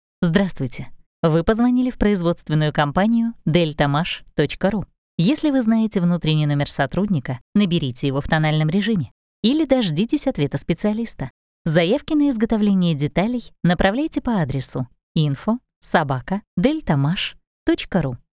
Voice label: IVR; Deltamash